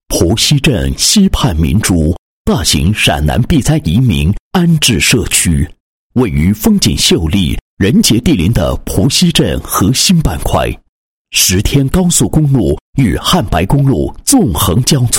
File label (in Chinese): 男 房地产广告, 配音; 蒲溪镇溪畔明珠